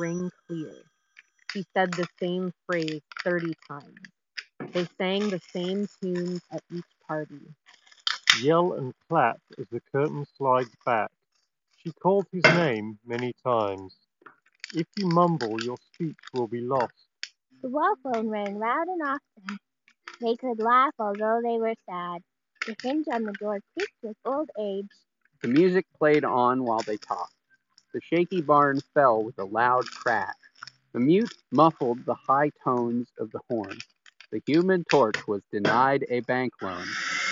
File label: Urbanista Lisbon Office microphone